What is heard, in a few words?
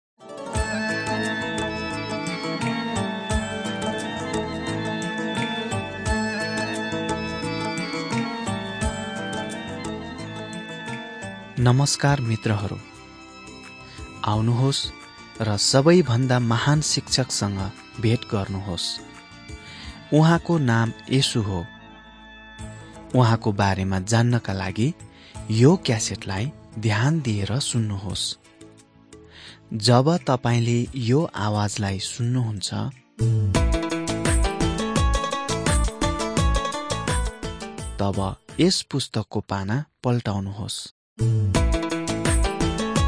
jesus teacher and healer nepali